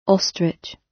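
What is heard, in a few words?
"ostrich" British; Flora and fauna, agriculture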